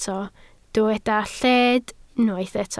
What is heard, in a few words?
"lled", Welsh